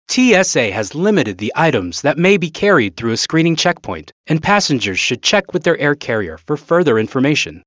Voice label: Security Generic Male; seattle; portofseattle; SeaTac; TSA has limited the items that may be carried through a screening checkpoint, / and passengers should check with their air carrier for furth